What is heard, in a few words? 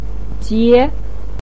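"jie", Mandarin pinyin, second tone; 俊; jùn jié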